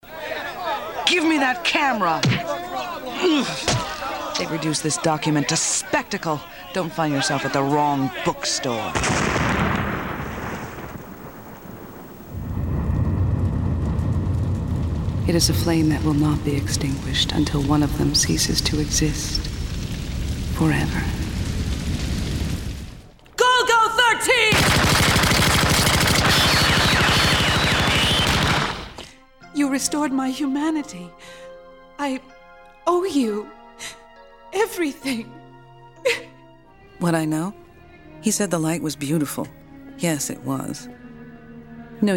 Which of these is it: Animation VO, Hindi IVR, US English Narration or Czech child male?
Animation VO